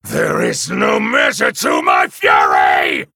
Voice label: Male Night Elf Fury

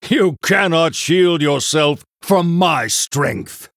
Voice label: Male Human Threaten; HERO

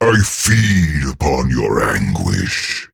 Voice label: Male Nerubian Hero Power; Anubarak

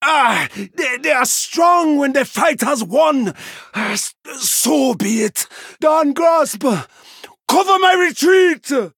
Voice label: Male Troll; Kazakus; Kurtrus

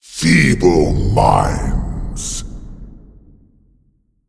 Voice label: Male Elemental Attack

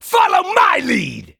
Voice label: Male Imp Attack

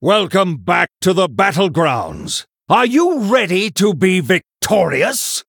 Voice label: Male Human New Game

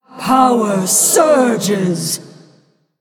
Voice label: Female Elemental Attack

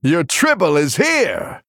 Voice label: Male Human After Triple

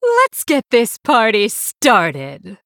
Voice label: Female Blood Elf Start

